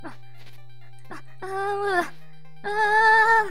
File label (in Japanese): ダメージボイス; 悪魔城ドラキュラ(FC版)